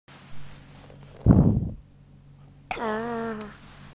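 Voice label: "ca1", Mandarin Chinese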